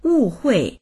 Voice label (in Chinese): "wu4hui4", voices; 误会; 誤解する; HSK合格講座